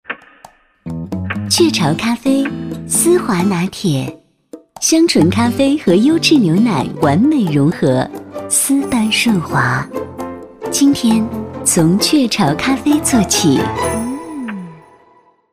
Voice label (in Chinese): 广告 轻松甜美, 女; 咖啡; 雀巢咖啡